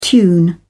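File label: "tune in", British English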